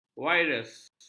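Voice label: "virus", Malay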